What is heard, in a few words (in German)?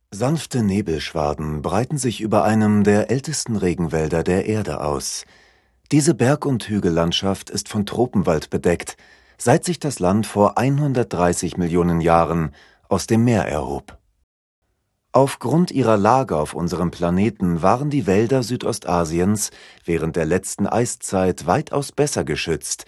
Kommentar Regenwald; der Hörverlag / hr2 Kultur